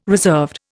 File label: "reserved", Indian